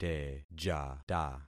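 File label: "tejata", concatenated syllables; Affixing experiment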